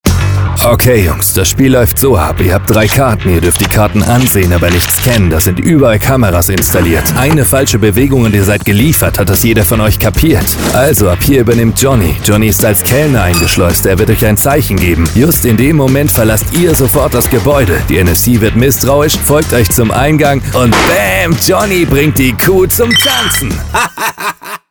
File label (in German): sprachdemo; gamingocean